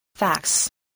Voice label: "fax", English